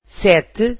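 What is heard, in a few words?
"sete", Portuguese, female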